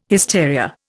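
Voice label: "hysteria", Indian English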